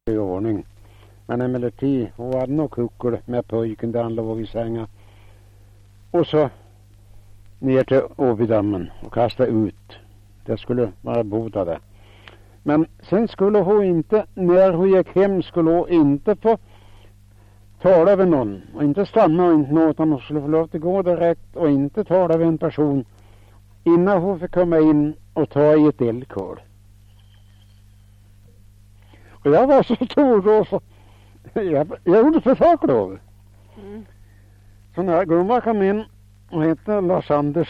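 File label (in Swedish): Fellingsbro Västmanland, dialekter, svenska sagor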